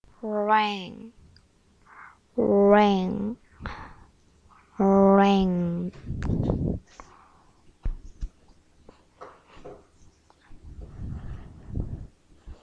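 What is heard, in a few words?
"ring", spoken word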